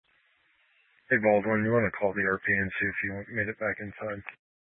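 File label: Police 1 Dispatch, Scanner audio recording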